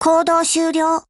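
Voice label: operation over, Japanese; HK416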